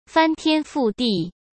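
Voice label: "翻天覆地", Mandarin Chinese; chinese-flashcards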